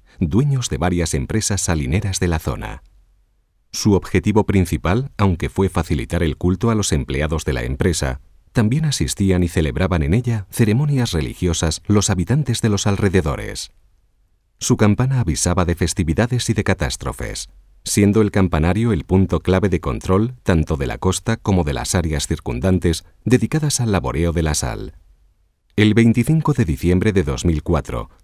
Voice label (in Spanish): audioguia iglesia salinas